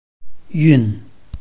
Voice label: "yun4", Chinese